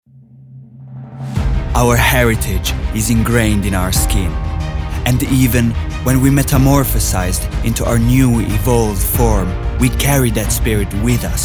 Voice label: English